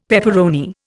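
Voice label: "pepperoni", Indian English; Dictionary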